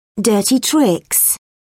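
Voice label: "dirty tricks", British English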